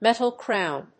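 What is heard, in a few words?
"metal crown", English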